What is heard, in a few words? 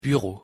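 pronunciation "bureau", French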